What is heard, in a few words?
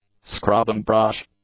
"scrubbing brush", English; nouns